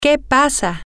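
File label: Spanish, female; whats Happening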